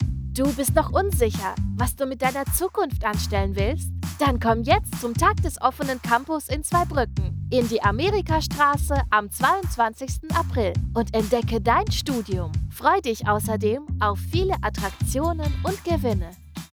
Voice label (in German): Werbung Studium mit Musik